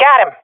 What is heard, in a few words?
i killed enemy, swat fem; vo; agents; Source Model Packs; Counter Strike Model Pack; CS2